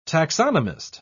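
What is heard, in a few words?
"taxonomist", British English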